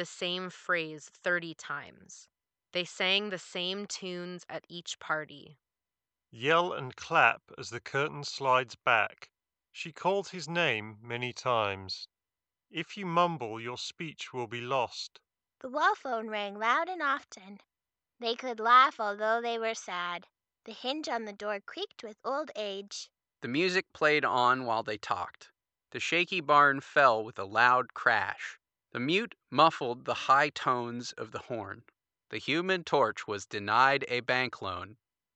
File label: Ideal microphone sample; Razer Kraken V3